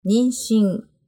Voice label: "ninshin", Japanese; pregnancy